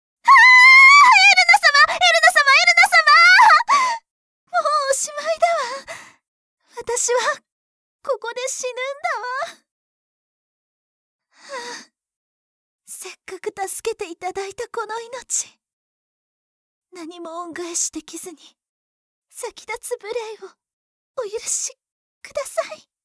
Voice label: timid